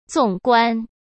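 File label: "纵观", Mandarin Chinese; chinese-flashcards; hsk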